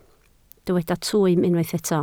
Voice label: "twym", Welsh